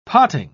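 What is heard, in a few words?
"potting", British English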